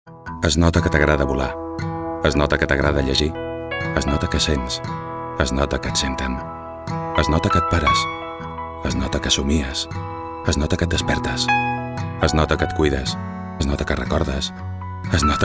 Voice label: male catalan food comercial; ideasonora

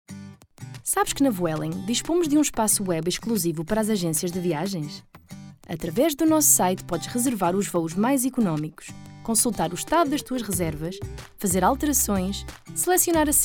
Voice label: female portuguese comercial; ideasonora

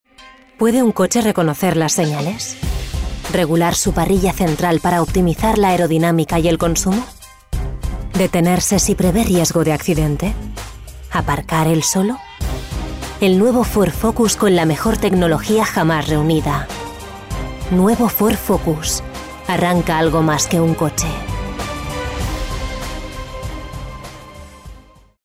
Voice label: female spanish car comercial; ideasonora

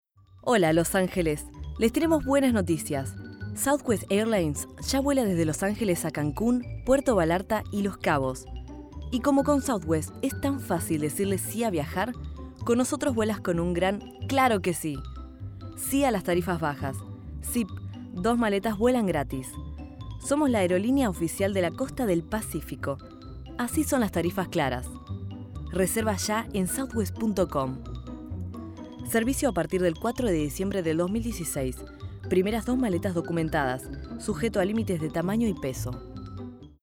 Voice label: female Argentinian tv comercial; ideasonora

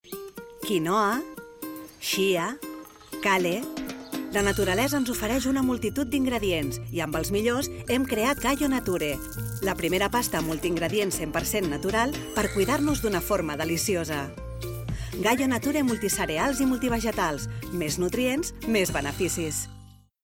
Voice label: female catalan food comercial; ideasonora

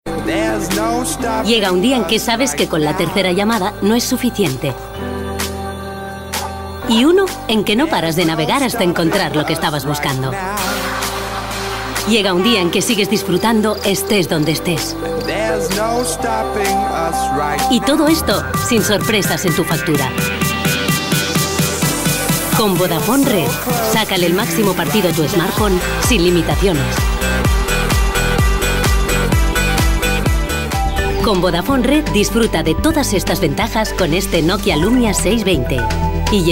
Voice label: female spanish mobile comercial; ideasonora

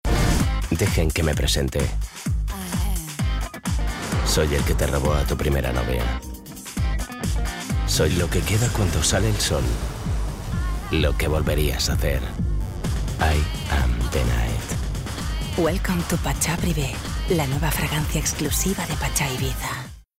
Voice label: male spanish fragrance comercial; ideasonora

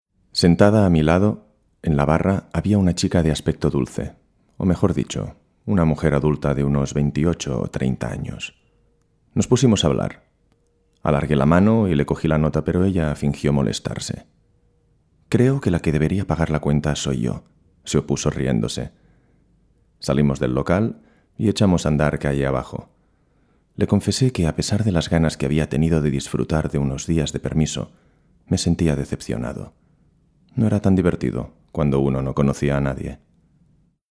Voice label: male spanish book comercial; ideasonora

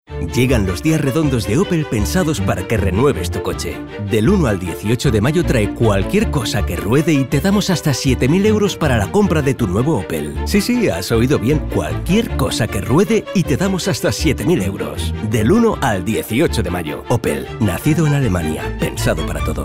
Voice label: male spanish car comercial; ideasonora

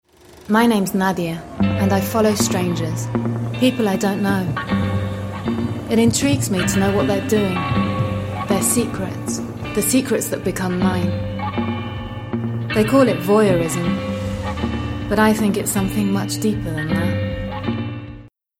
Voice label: female british english acting comercial; ideasonora

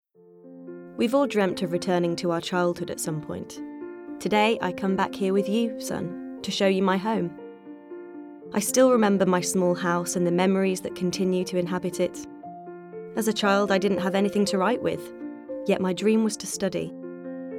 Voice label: female british english testimonial comercial; ideasonora

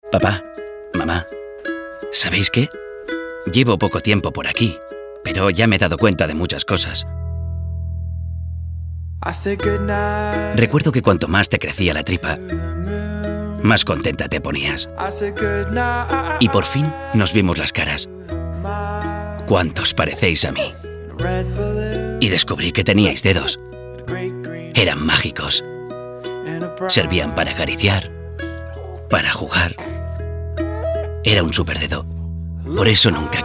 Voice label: male spanish babe comercial

